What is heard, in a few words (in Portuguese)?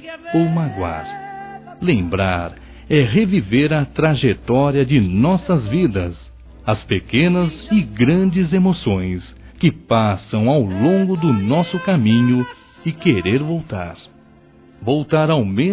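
Desculpas Pela Falta de Tempo, Masculino; Telemensagens 2017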